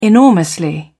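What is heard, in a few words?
"enormously", British English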